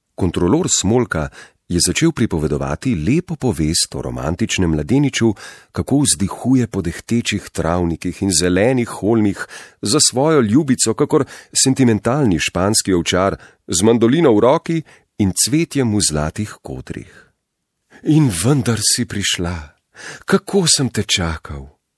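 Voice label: slovenian male narration; voice demos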